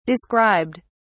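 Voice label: "described", British English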